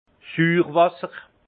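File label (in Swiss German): "suurwasser", alsace Haut-Rhin; vin; vin amer de suresnes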